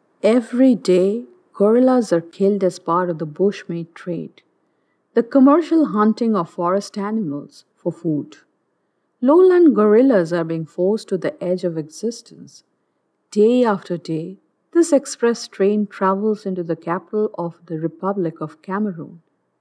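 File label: Bengali English, female, English Narration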